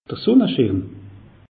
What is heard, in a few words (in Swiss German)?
"sunneschirm", alsace; meteo